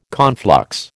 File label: "conflux", American